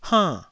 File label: "hainn4", Taiwanese syllable